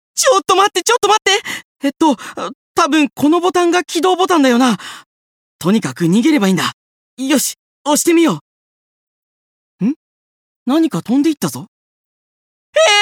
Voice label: あわてものキャラ

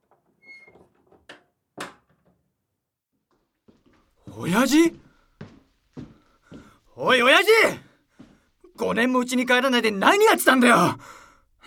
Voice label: 怒り

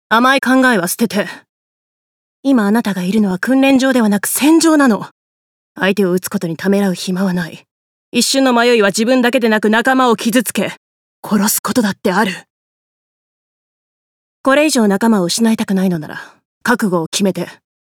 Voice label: 兵士

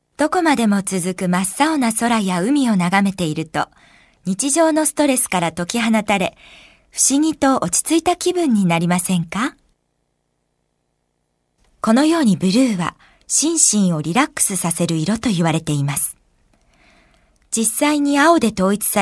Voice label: 解説ナレ

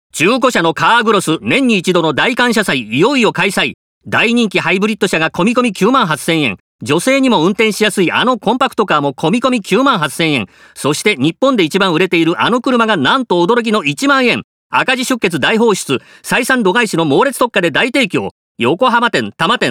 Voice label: アップテンポCM

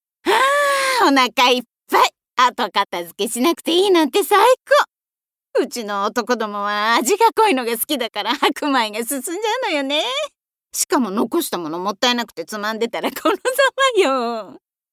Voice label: 庶民的主婦

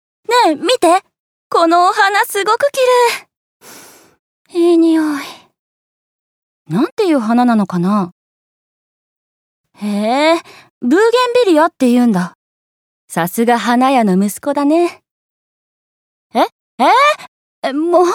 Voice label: 大学生

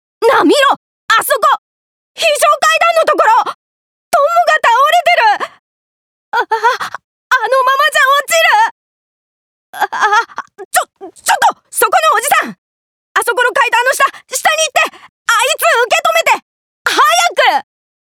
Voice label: 少年

